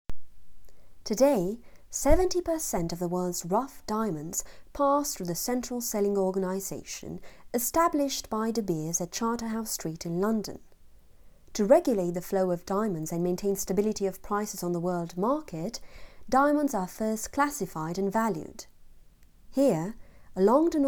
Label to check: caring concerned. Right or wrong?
Wrong